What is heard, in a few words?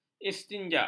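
"istinjak", Malay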